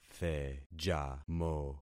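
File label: "thejamo", concatenated syllables; Affixing experiment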